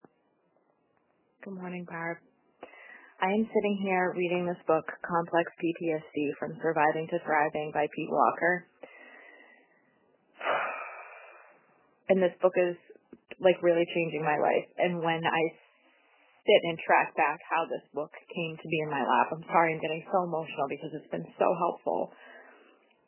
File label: Audio Message